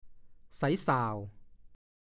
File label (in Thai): "ไสส่าว", ภาษาโคราช; การเล่นอย่างหนึ่งเป็นการแข่งขันกันระหว่างตัวต่อตัว หรือฝ่ายละประมาณ ๒-๔ คน